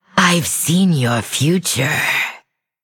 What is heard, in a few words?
Female Undead Emote Greetings